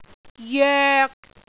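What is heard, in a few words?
"joek3", Cantonese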